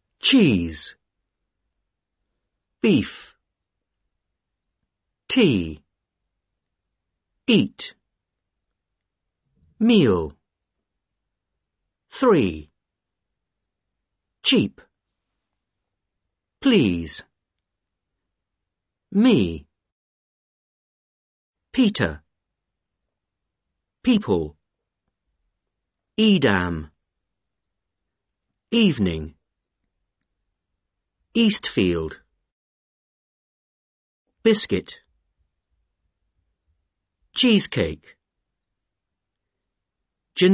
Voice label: vowel sound long i